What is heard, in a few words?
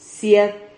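"ciad", Spanish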